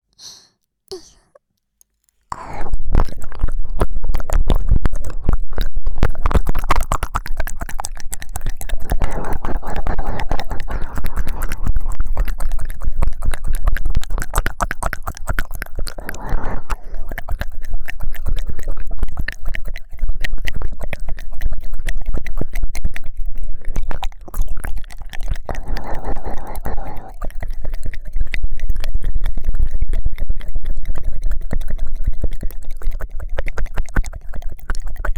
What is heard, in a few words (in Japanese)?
日本語翻訳版 笑いながらの耳舐め ぐにゅぐにゅ耳舐め, ASMR; 宇宙人による笑いながらの耳舐めと強制足コキ